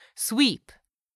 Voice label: "sweep", English; 3000 words

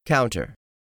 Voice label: "counter", english; 3000 words

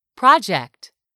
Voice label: "project", English; 3000 words

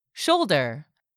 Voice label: "SHOULDER", english; 3000 words

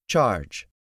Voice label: "CHARGE", English; 3000 words

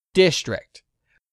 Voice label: "DISTRICT", English; 3000 words

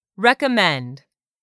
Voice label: "RECOMMEND", english; 3000 words

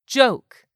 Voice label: "JOKE", english; 3000 words

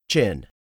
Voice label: "chin", english; 3000 words

